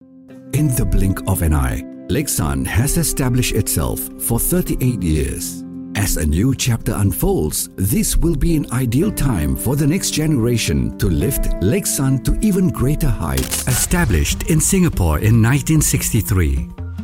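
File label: Malay, Accented English; voice demos; GoLocalise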